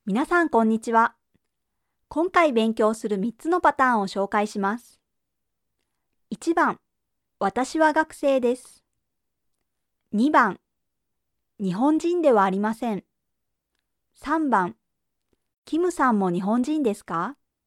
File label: Japanese explainer